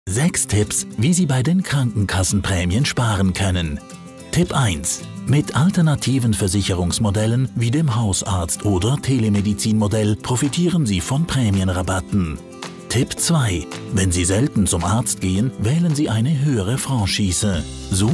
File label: german male explainer